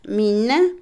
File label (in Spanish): ardilla, Otomí, mujer; Animales; INALI